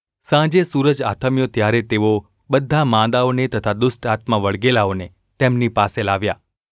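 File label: gu ulb mrk, Gujarati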